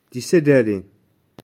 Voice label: "Tisadalen", Touareg; Uovo

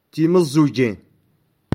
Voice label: "Timazoujen", Touareg; Orecchie

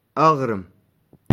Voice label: "Aghram", Touareg; Villaggio

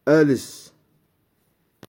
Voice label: "Alas", Touareg; Uomo

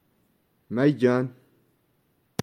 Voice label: "Maydjan", Touareg; Come stai

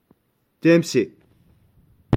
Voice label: "Temse", Touareg; Fuoco

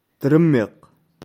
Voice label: "Tremeght", Touareg; Paura

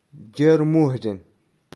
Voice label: "Jer Mouhdan", Touareg; Novembre

